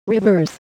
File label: VOICEFX TEKNO 140, Techno, Voice